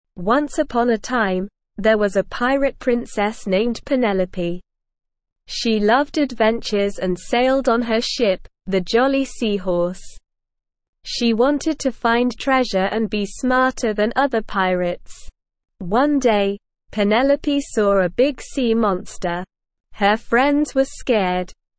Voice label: ESL Short Stories for Kids Beginner SLOW Reading; The Pirate Princess